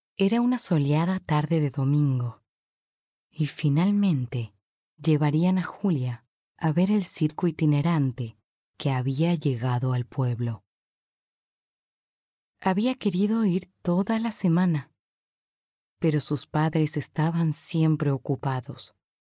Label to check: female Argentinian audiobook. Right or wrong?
Right